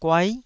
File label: "guai9", taiwanese